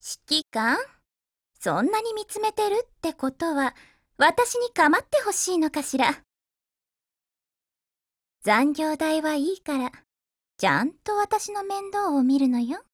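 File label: DIALOGUE, Japanese; RMB93Mod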